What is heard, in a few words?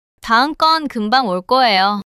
neutral